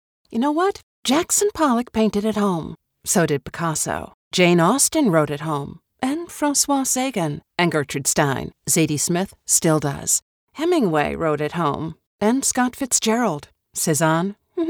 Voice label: COVID PSA

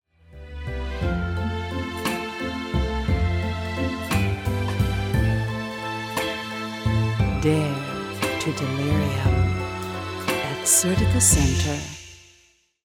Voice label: Beauty Luxury Mystery Fragrence; DELIRIUM

